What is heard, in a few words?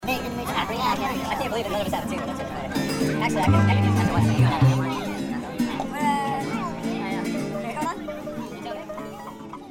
Blue Grass Banter; MageWorks